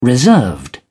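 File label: "reserved", British English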